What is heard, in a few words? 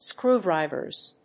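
"screwdrivers", English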